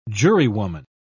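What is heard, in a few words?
"jurywoman", British English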